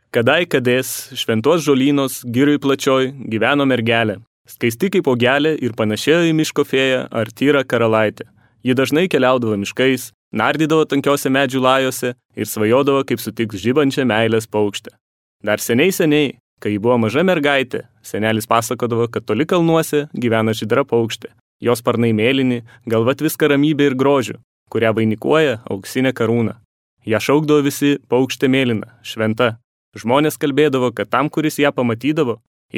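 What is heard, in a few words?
lithuanian male narration; voice demos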